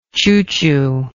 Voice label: "choo choo", English